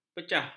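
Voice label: "pecah", Malay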